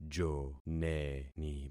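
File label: "joneni", concatenated syllables; Affixing experiment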